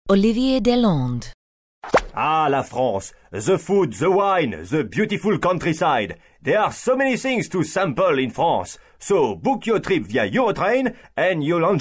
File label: eng coms